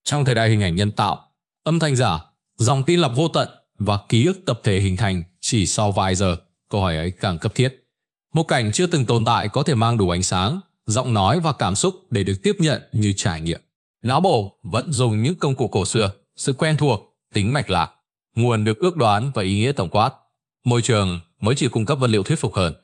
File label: narration